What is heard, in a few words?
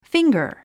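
"finger", English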